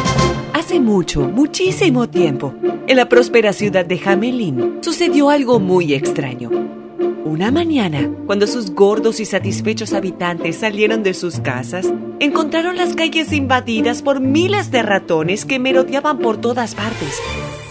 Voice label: spanish narration; (I) Uruguayan Spanish Speaker. Authoritative Spanish announcer ranging from light-hearted to sensual.